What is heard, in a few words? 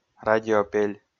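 "радиопель", Komi, male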